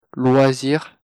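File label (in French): "loisir", French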